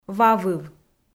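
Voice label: "вавыв", Komi-Zyrian, female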